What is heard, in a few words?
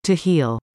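"to heal", English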